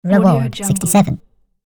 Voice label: cartoon voice says reward